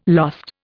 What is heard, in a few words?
"lost", Indian